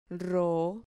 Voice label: "rro", Spanish syllable